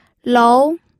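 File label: "lou", second tone, pinyin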